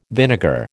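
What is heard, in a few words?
"vinegar", American English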